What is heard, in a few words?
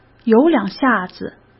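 "有两下子", Mandarin Chinese; chinese flashcards; hsk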